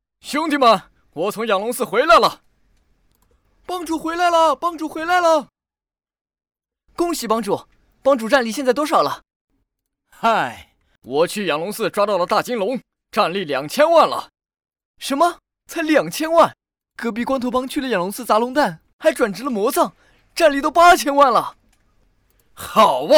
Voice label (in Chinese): 广告 配音